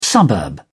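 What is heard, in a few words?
"suburb", British English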